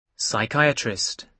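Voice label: "psychiatrist", British English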